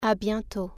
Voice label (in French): "see you later", French